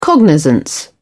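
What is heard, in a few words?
"cognizance", British English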